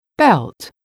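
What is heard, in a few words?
"belt", English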